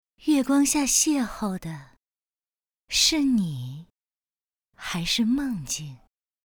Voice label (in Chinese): 模仿, 女, 魅惑